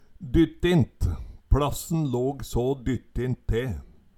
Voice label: "dyttint", Numedalsmål; Dyttint er og bruka om dårleg akustikk.; Ordet er ubøyeleg.

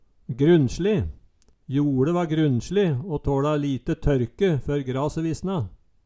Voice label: grunnsli, Numedalsmål

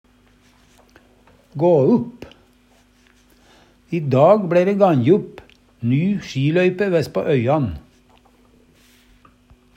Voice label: ga upp, Numedalsmål; I dag bLei ræ ganje upp ny sjiløype vestpå øyan.; gå opp, leggja på

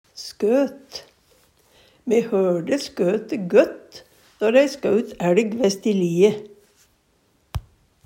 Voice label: "skøt", Numedalsmål; Substantiv inkjekjønn

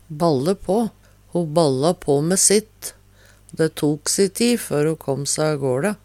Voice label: "balle på", Numedalsmål

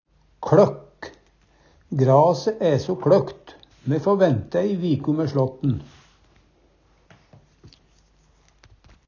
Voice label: kLøkk, Numedalsmål; Grase æ so kLøkt. Me få vænte ei viku mæ slåtten.; Adjektiv

